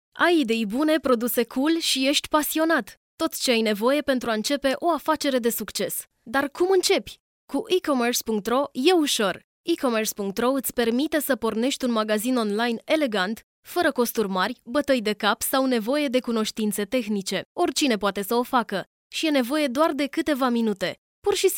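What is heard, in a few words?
Romanian, female; romanian voice over talent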